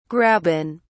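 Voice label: "graben", English, British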